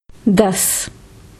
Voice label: "Ten", hindi